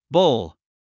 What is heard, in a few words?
"bowl", English; minimal pairs quiz